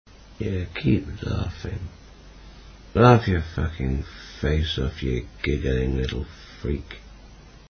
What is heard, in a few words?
keep Laughing